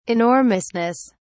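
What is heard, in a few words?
"enormousness", British English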